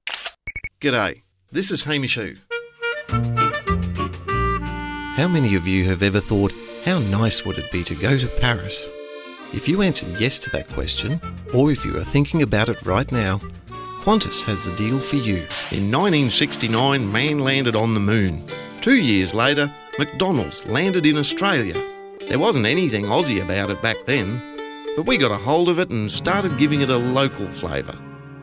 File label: Voice Over